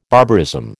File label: "barbarism", American